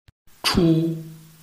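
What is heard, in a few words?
"chu", Chinese, tone 1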